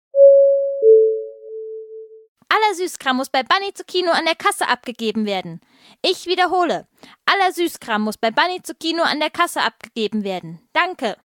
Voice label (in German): durchsage